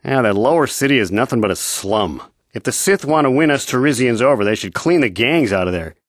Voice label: male

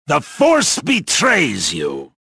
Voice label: gloat; reborn

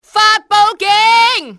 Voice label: sound the alarm, cantonese; XBOX; enemy

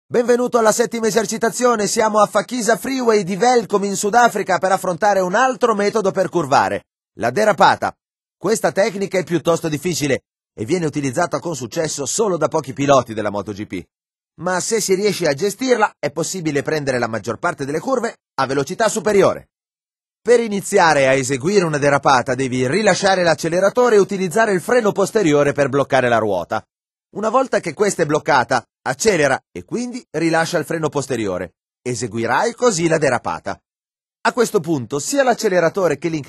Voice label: powerslide italian; MotoGP 3

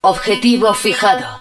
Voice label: Target Locked, Spanish

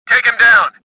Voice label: anger; XBOX; stofficer

